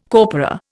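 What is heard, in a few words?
"corpora", Indian English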